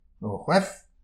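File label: "ur choef", Breton; petit precis de prononciation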